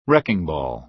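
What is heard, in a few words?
"wrecking ball", American English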